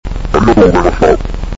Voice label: sample low, voice